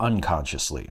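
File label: "unconsciously", US English; pronunciations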